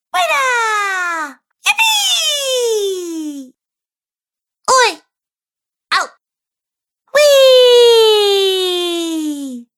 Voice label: Cartoon Girl Variety Pack, Cartoon Voice; Twistedtracks